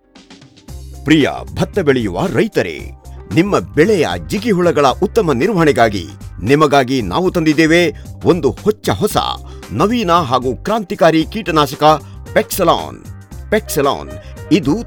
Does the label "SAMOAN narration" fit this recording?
No